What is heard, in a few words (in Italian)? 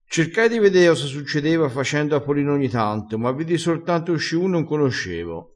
"fa capolin", vocabolario dialettale